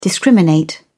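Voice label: "discriminate", British English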